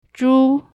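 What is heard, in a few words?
"zhu" pig, mandarin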